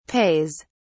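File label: "pays", British English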